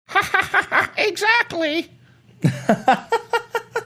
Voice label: laughing and saying exactly like a parrot